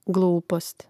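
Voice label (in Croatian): "glupost", Hrvatski; mreznik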